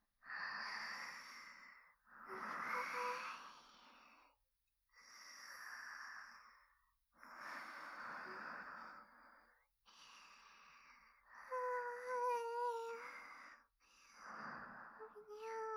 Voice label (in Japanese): 添い寝ループ; 異世界風俗店へようこそ!～ろりサキュバスの双子姉妹～; えるぷろ; アリスとリーベの添い寝ループ